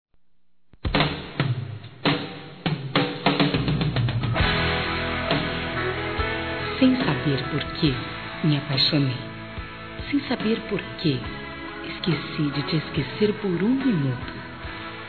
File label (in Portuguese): aniv namorado romantico f; Aniversários; fem